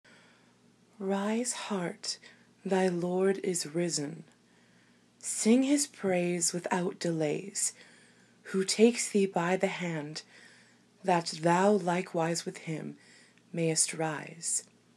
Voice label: poetry; [F] Easter (Rise Heart) - George Herbert - 1633; [Hope]